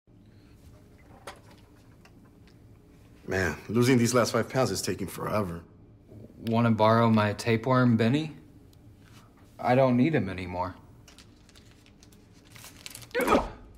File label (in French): spot TV en Anglais; Skittles